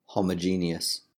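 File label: "homogeneous", British English; pronunciations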